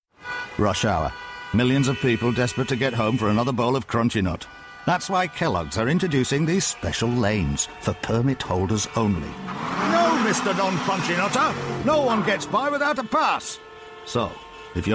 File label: Commercial; Crunchy Nut